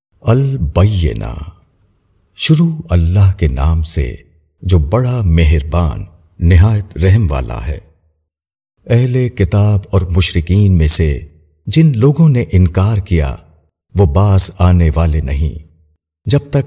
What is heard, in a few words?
AL BAYYENA, URDU TARJUMA E QURAN; Inzaar